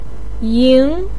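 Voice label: "ying2", Mandarin pinyin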